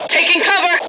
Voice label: suppressed, F Voice English